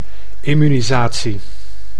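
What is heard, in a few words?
"immunisatie", Dutch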